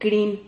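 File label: "crin", Spanish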